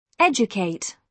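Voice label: "educate", British English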